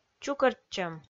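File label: "чукӧртчӧм", Komi, female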